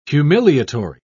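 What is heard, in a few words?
"humiliatory", English, British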